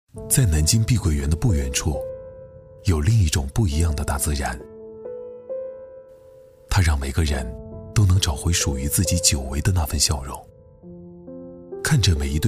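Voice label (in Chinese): 男 专题（温暖）; 房产类; 南京; 南京碧桂园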